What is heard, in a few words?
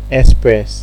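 "express", Nigerian Pidgin, male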